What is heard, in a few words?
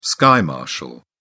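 "sky marshal", British English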